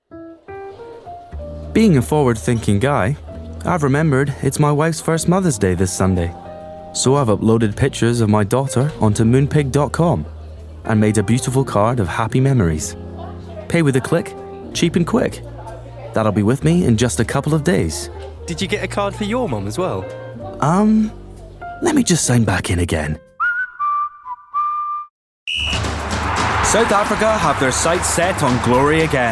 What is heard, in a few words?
Commercials